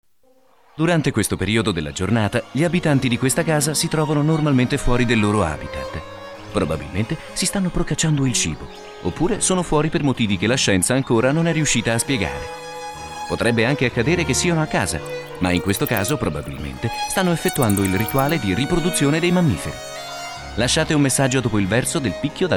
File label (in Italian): Segreteria telefonica - Naturalistica